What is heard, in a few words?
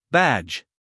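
"badge", American English, male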